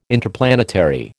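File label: "Interplanetary", American English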